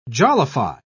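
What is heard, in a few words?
"jollify", British English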